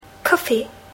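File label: "coffee", English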